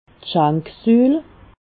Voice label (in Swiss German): "schanksuul", Alsace